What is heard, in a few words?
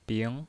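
"bing", Mandarin Chinese, second tone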